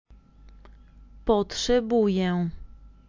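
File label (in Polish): "potrzebuję", Polish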